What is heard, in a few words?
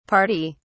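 "pardy", British English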